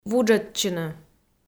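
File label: "вуджӧдчыны", Komi, female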